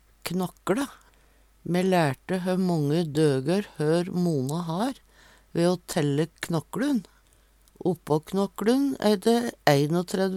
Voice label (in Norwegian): "knokkLe", Numedalsmål